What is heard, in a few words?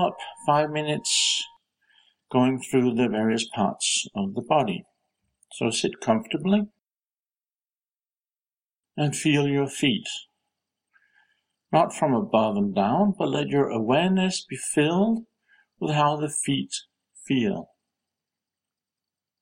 ENGLISH CHAKRA KRIYA